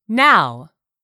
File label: "now", English; 3000 words